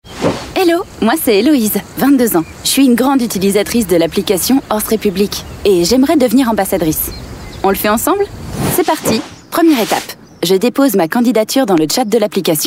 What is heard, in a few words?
french voice over female narrator